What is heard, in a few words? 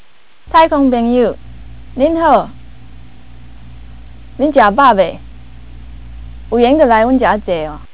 amoy; voyager